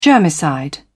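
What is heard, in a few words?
"germicide", British English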